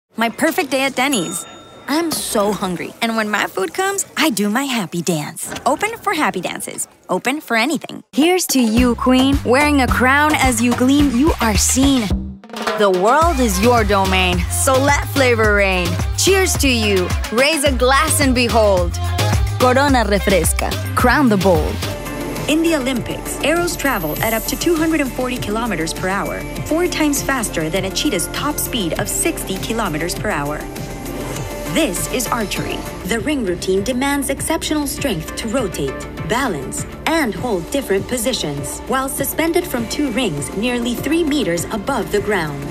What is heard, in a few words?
voiceover animation; new york